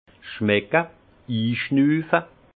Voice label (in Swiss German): "schmecke", alsace; vin; sentir humer